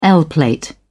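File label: "l plate", British English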